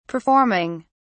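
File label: "performing", British English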